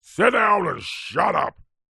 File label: human old grumpy man english says angrily sit down and shut up; zapsplat